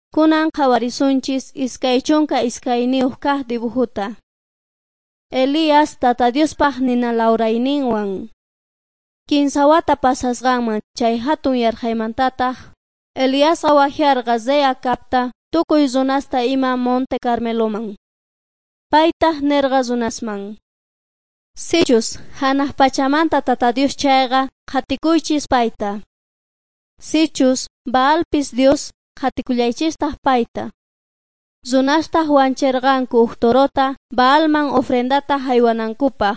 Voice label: quechua bolivian cochabamba; servants of god

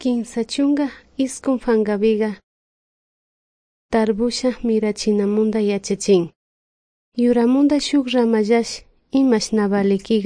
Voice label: good news quichua de salasaca

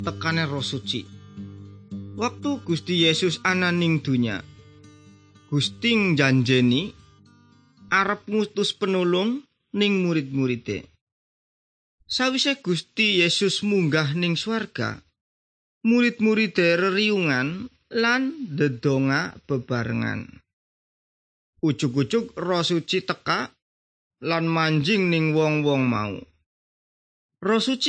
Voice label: good news jawa indramayu, javanese bible

